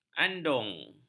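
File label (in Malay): "andung", Malay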